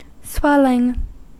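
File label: "swelling", American English; pronunciation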